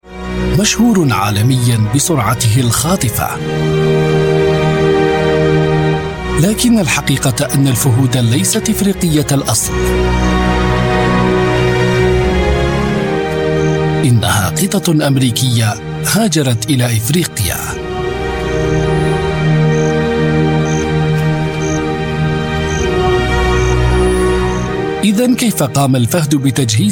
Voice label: arabic voice over male documentary